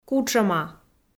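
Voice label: "кутшӧма", Komi, female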